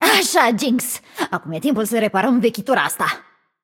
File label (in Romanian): Room2 VO Puzzle View, vo, ro-ro